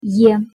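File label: "е", Russian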